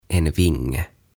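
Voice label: "En vinge", "Siipi", Swedish, Finnish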